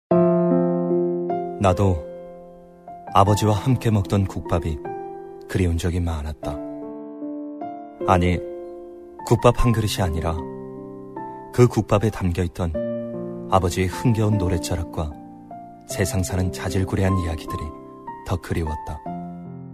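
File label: Korean, male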